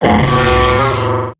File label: Death Eximus Corpus Robot Large; Dialog; Barks; Battle; Corpus Robotic Large